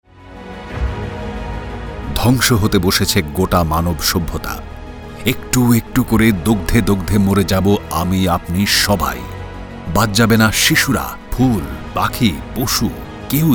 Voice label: climate change public service announcement; radio4child awards 2024